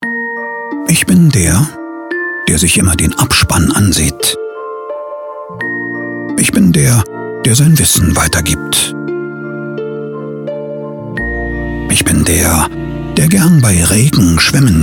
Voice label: Werbung, deutsch maenner

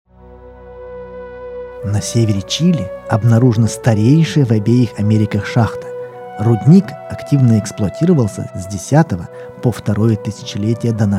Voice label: ru doku, sprecher russisch

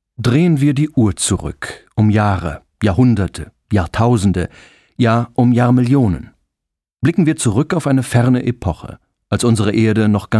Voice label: de voiceover, deutsch maenner; sprecher

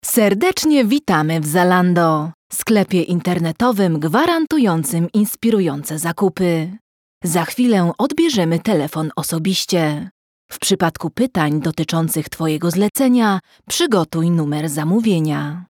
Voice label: polnisch telefon; sprecher

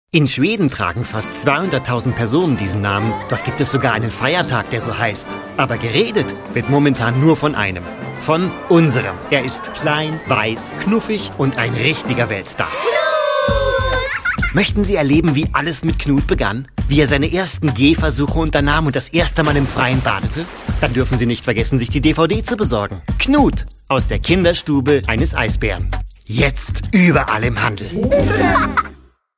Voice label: de werbung, deutsch maenner; sprecher

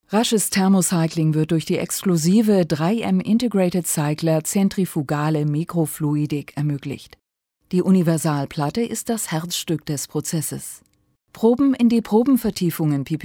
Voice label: deutsch frauen elearning

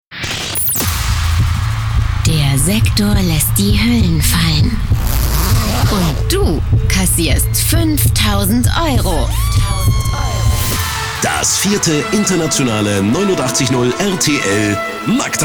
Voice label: deutsch frauen radio; sprecher

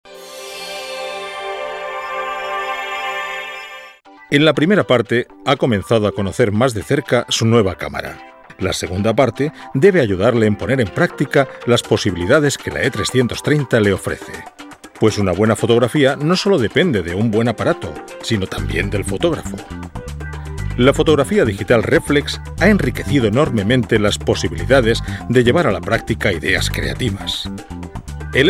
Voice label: erklaerfilm, spanisch

